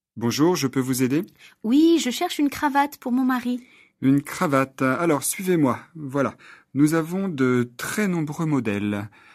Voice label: french dialogue; achat de cravat; cravate